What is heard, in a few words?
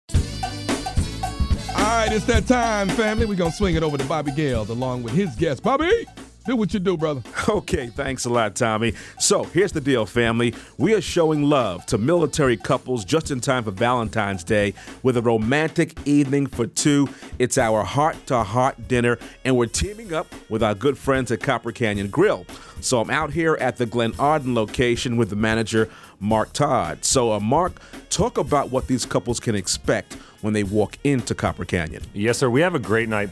Air Check; Copper Canyon Grill; Heart2Heart